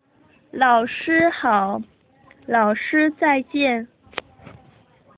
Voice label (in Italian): saluto cinese